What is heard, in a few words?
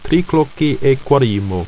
"triquar", ido